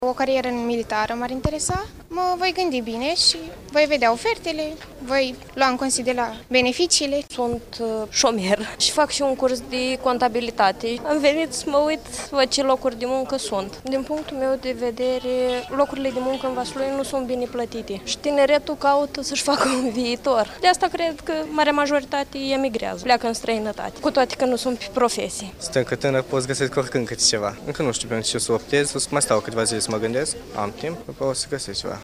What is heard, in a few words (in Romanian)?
vox pop absolventi